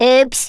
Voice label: "oops", Brummie; Worms Armageddon

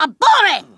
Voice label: "boring", Kamikaze; Worms Armageddon

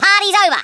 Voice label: "FIRST BLOOD", Australian; Worms Armageddon

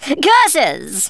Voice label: oops, Speech Thespian; Worms Armageddon

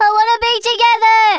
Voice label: "kamikaze", Brummie; Worms Armageddon

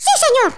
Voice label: "YES SIR", Spanish

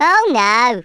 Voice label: "bummer", Brummie; Worms Armageddon

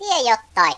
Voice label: "hurry", Finnish; Worms Armageddon

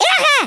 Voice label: "AMAZING", German; Worms Armageddon

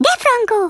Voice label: AMAZING, Speech Hungarian; Worms Armageddon

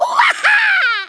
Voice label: "bungee", Kamikaze; Worms Armageddon

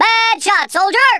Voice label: missed, Drill Sergeant; Worms Armageddon

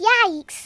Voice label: grenade, Kidz, Speech; Worms Armageddon

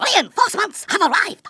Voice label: "DROP", Kamikaze; Worms Armageddon

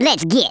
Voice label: "runaway", Redneck

